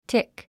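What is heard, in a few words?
"tick", English; tík チク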